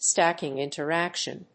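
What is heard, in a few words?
"stacking interaction", English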